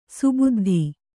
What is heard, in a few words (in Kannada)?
"ಸುಬುದ್ಧಿ", Kannada